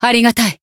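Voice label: healed, Japanese; milla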